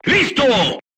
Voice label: voice message charged, crew tanks spanish